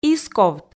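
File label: "исковт", Komi, female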